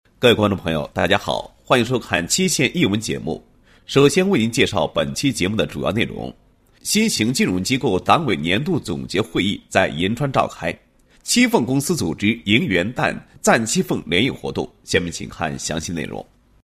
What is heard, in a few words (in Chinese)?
男 新闻 节目新闻; 栖凤佚闻节目新闻